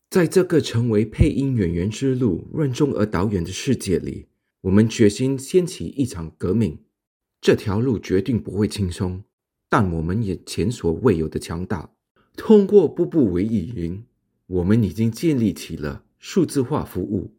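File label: General, Chinese; voice demos; GoLocalise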